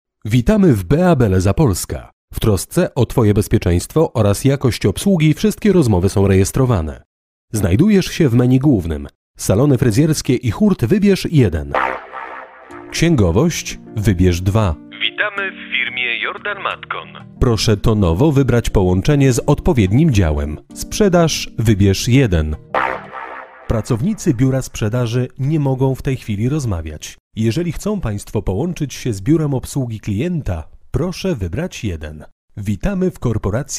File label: Polish